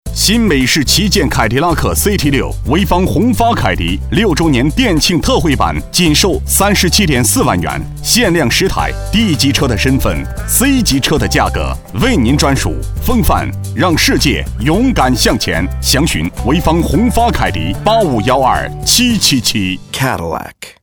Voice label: 男 广告; 凯迪拉克

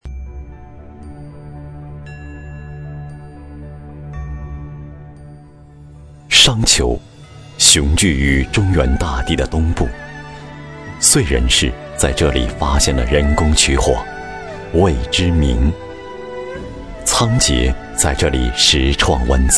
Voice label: 男 专题 人物介绍

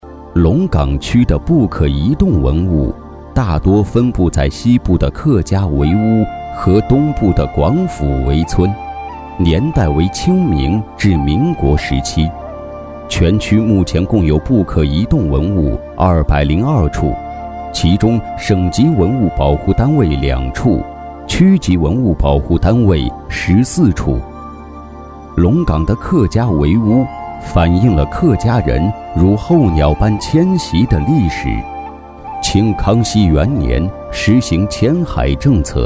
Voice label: 男 纪录片 文物; 龙岗区; 龙岗区文物

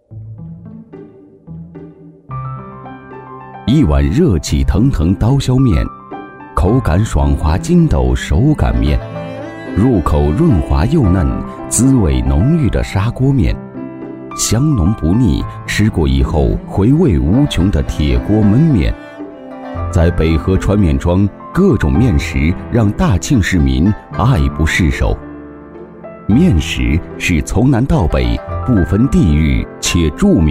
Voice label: 男 纪录片 带干音; 面食工艺

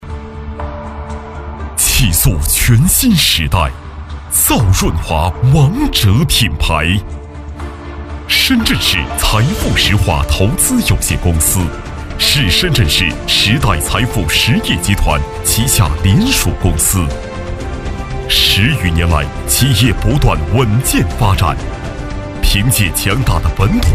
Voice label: 男 专题 润滑油; 彼凌驰

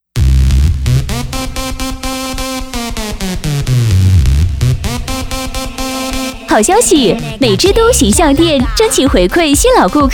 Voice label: 促销; 美都

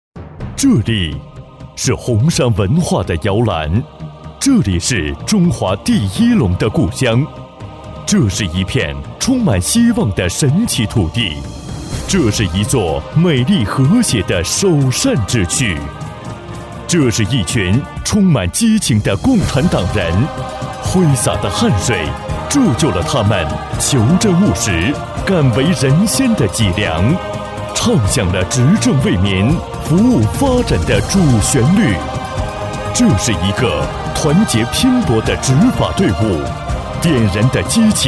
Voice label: 男95-专题-党建专题大气抒情

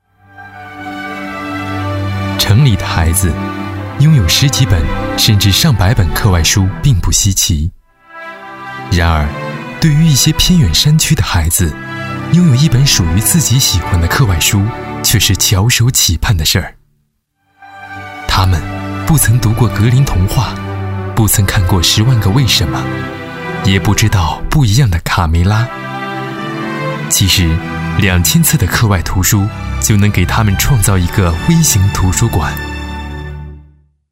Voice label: 男 旁白 微电影

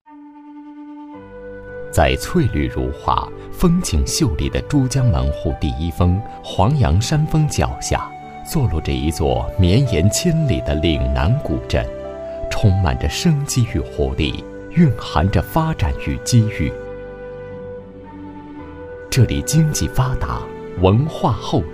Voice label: 男 专题 古韵悠悠; 珠江第一峰

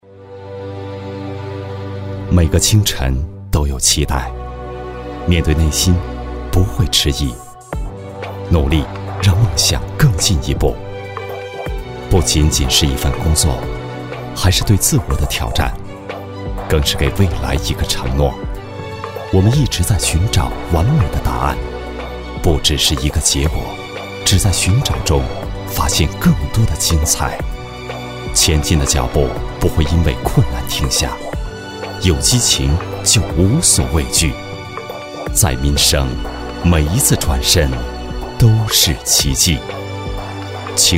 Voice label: 男, 广告; 民生银行校园招聘

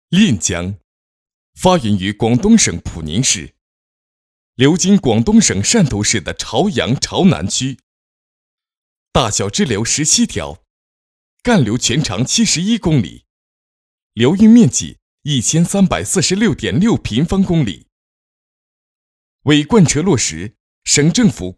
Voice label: 男 专题 干声

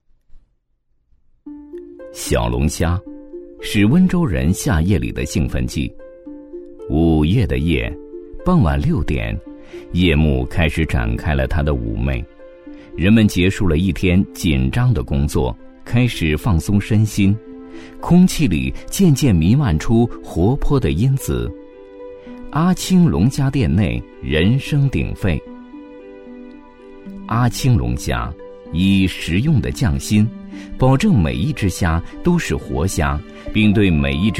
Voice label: 男 纪录片 小龙虾【舌尖风格】

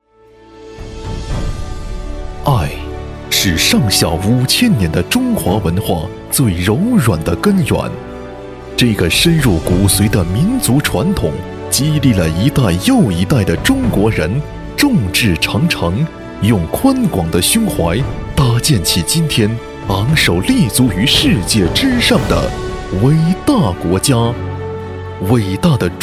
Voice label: 男 专题; 大型企业爱凡升嵘

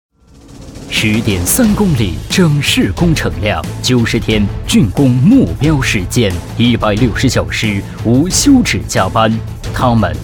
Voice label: 男, 专题, 企业颁奖

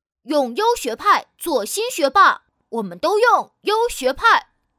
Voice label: 女 广告 男童; 优学派

